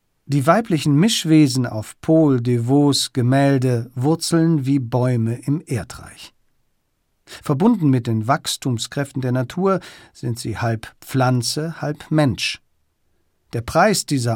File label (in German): Audioguide Surrealismus